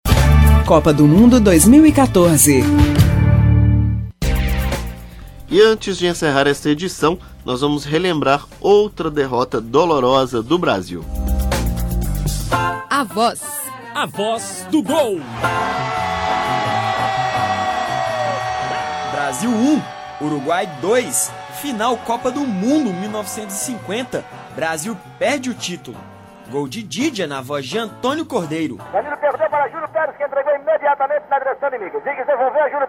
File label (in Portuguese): LOCUTOR BRASILEIRO NARRA GOL; GOL DE DIGGIA NA COPA DE 1950; jogo da Brasil contra Uruguai no Mundial de 1950 no Brasil